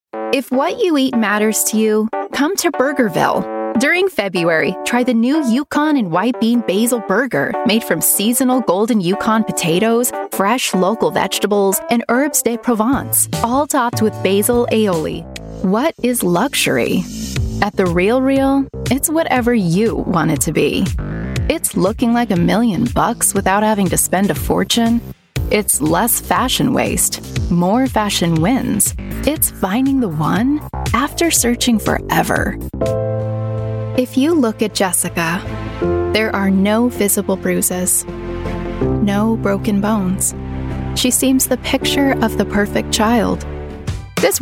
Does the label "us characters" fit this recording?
No